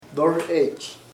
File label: on, chamacoco; sobre